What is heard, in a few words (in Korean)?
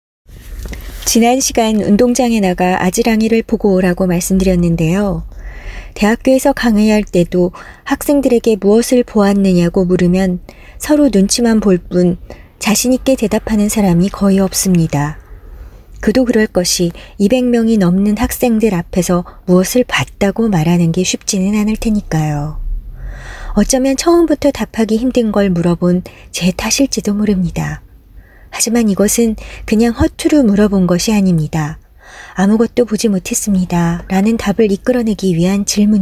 Korean Voice; Female